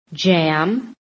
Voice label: "JAM", american; PHONETIC